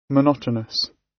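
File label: "monotonous", British; Business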